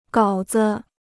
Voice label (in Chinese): "稿子", Chinese